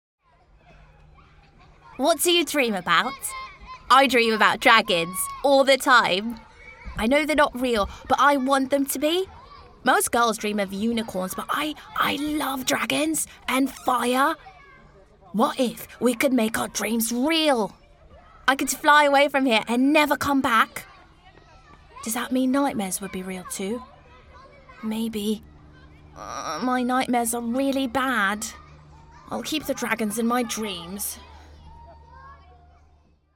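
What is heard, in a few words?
British English, Character; GoLocalise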